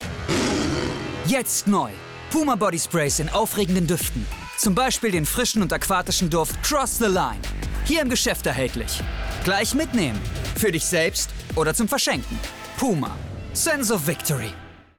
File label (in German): Werbung; puma; LOFT VOICES